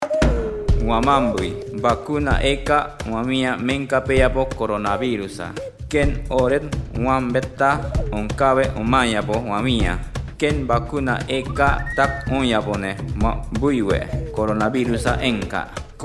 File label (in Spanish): harakbut, spot de radio; vacuna contra el coronavirus; vacunate para protegerte del coronavirus